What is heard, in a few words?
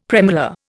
"premolar", Indian; Dictionary